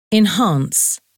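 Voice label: "enhance", English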